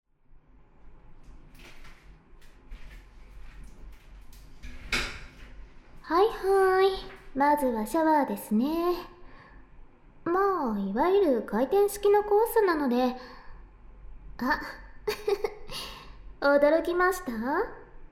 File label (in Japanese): 基本の耳舐め抜きコース, ASMR; 反転